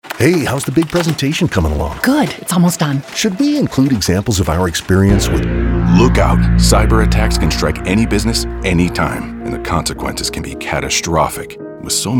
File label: Radio Spot; FID Look Out Small Business